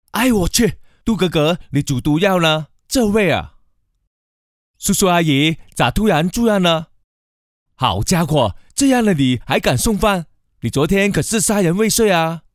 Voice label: 港普角色配音

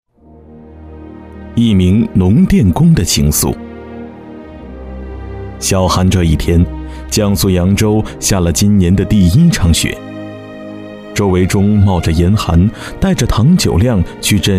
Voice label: 男 人物传记

